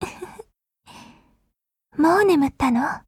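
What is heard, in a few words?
Japanese; Groza; Dreamscape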